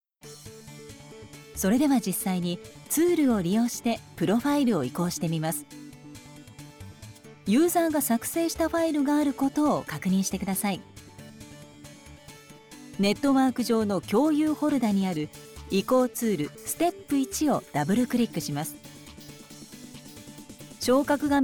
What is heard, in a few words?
female